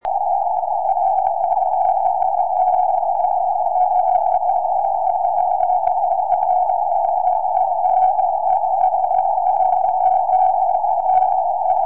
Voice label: 23cm, tropo

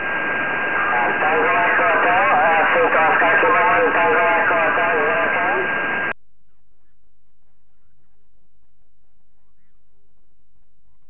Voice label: qso 4m

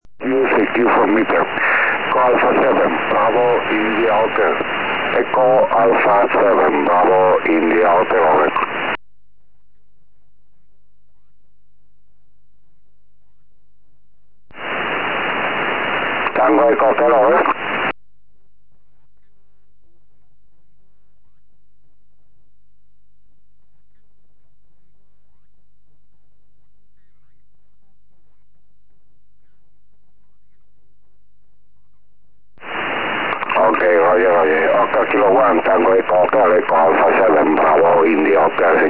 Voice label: 4m ssb

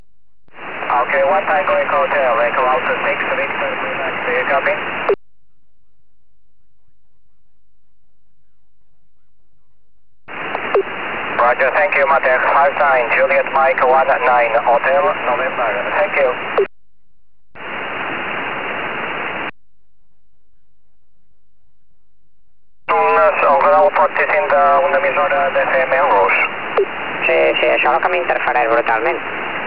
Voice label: qso 4m

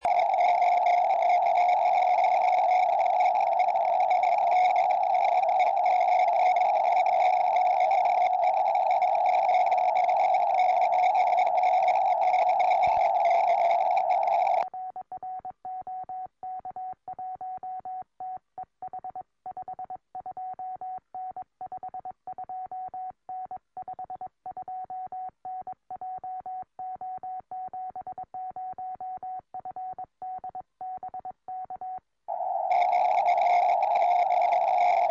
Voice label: 70cm, tropo

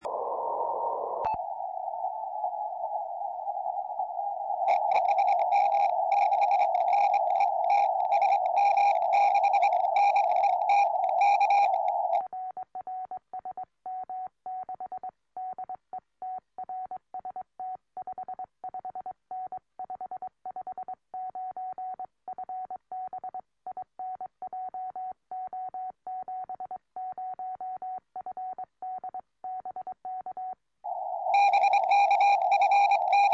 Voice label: 70cm, tropo

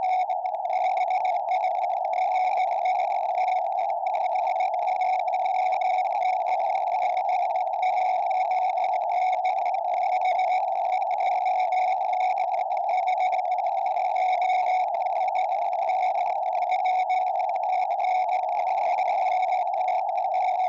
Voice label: 70cm qso, tropo

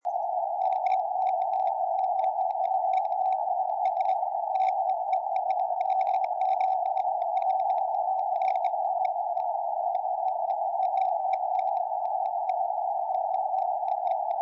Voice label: 23cm, tropo

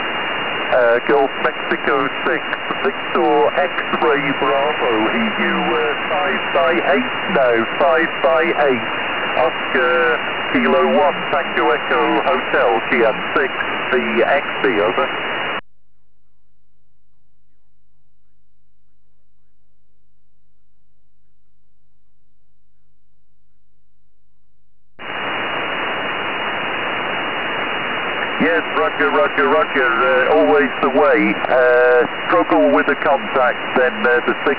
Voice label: ssb 4m

